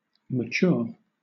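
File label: "mature", British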